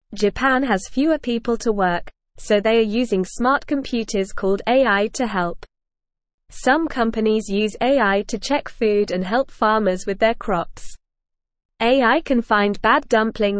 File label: English NORMAL Reading; Japan Uses Smart Computers to Help with Work